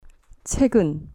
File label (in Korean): "chagun", Korean